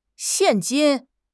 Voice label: "xian4 jin1", 汉语口语; 汉语口语新时代-初级下